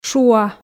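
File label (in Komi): "шуа", Komi, female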